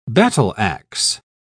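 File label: "battle-axe", British English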